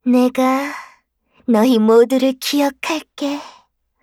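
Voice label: kill Player, Voice Line, Korean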